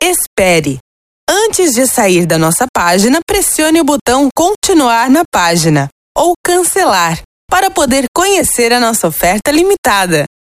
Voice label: IVR Portugal Dry